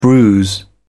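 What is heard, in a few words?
"bruise", British English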